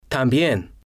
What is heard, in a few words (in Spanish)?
"tambien", Spanish